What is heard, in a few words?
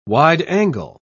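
"wide-angle", British English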